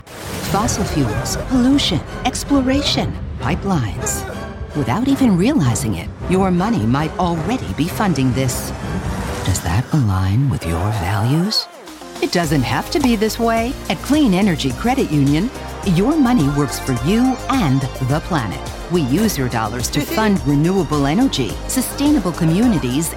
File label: Explainer, en-US